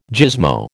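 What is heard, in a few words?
"gizmo", American English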